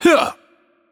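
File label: Attack Heavy, Italian; VO; bloom minigame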